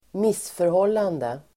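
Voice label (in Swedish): "missförhållande", Swedish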